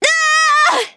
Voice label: hit, de; cookie run